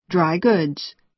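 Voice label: "dry goods", American English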